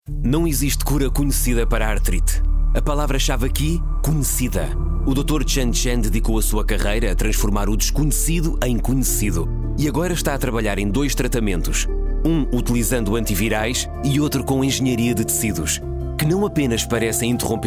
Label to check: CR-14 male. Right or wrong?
Wrong